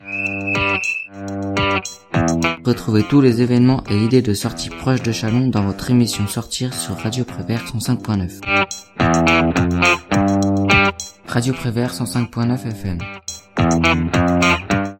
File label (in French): jingle radio prevert sortir